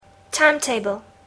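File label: "time-table", English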